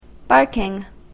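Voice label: "barking", English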